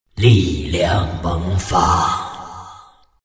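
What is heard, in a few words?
levelup, chinese; dota2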